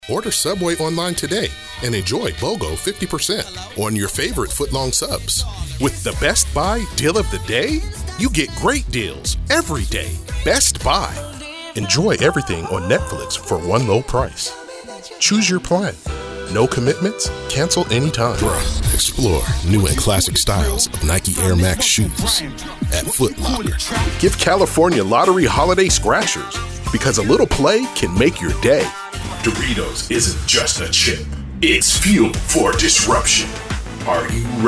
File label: Commercial, Voiceover